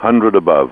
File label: "500 above", GPWS; A32X